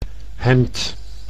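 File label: "hemd", Dutch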